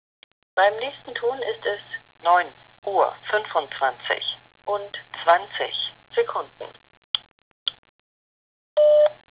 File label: German Hamburg; fetch 2012; aikapalvelu